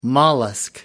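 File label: "mollusk", British English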